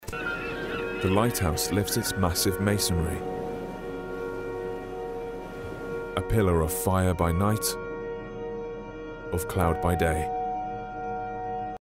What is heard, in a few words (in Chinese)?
外籍英男 诗歌 深情朗诵